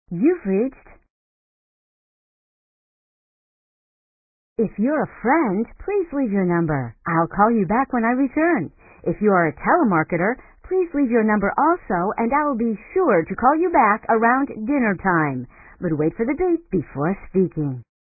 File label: Telemarketer, answering machine messages